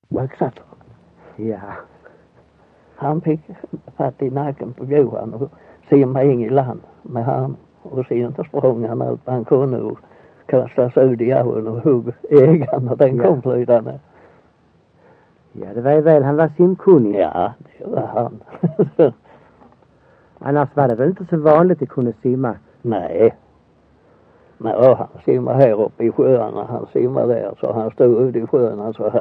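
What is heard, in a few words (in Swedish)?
Össjö Skåne, dialekter, svenska sagor; Folkminnen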